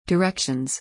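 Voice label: "directions", English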